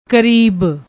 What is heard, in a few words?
"krib", Punjabi; ਕਰੀਬ